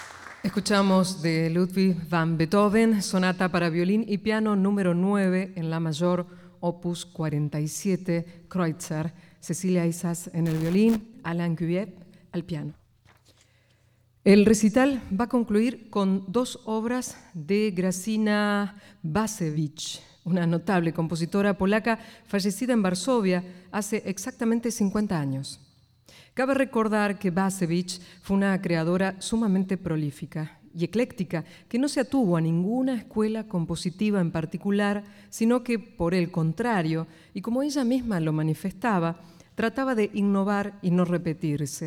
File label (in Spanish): Palabra Locutora